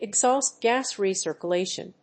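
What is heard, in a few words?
"Exhaust Gas Recirculation", English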